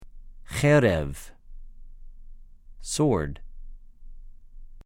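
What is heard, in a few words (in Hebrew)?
"חֶ֫רֶב", Hebrew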